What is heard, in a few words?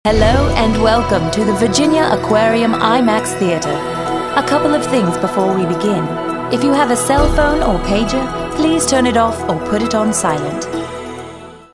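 british, voice-over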